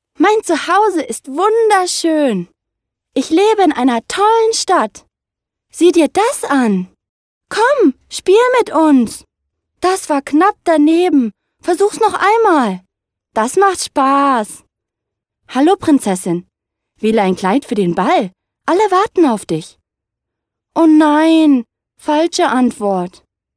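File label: German female children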